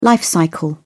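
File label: "life cycle", British English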